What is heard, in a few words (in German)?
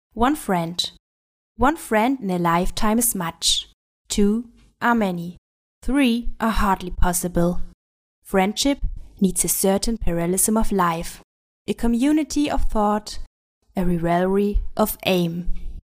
sprachprobe englisch